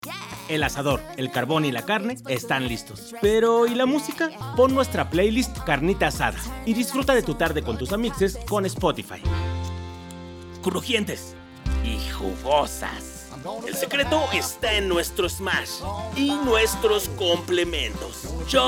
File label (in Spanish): Comercial